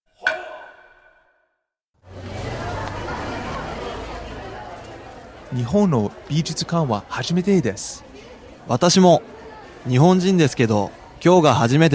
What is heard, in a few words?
dialog; JapanesePod101; Beginner Season 1